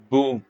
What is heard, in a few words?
short voyels arabic alphabet